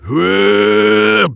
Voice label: engineer jeers